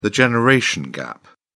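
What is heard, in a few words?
"generation gap", British English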